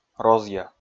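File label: "розъя", Komi, male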